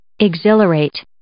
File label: "exhilarate", English